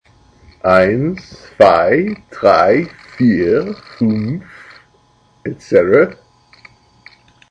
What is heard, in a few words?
counting To Ten in German